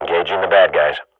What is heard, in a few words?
radio bot reponse attacking, swat; vo; Counter Strike Model Pack; CS:GO